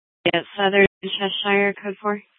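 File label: Police Department Dispatch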